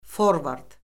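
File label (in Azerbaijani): "forvard", Azerbaijani; Orfoqrafiya Lüğəti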